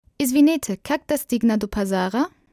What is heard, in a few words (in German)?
Entschuldigen Sie wo, Wegbeschreibung auf Bulgarisch